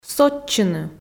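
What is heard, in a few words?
"сотчыны", Komi, female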